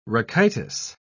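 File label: "rachitis", British English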